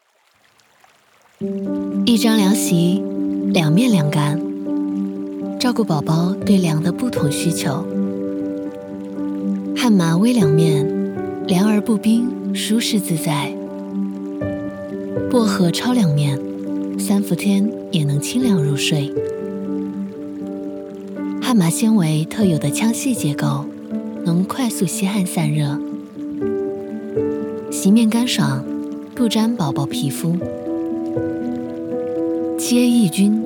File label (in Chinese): 女 品牌广告 中性 凉席